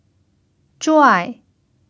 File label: "zhuai4", Mandarin pinyin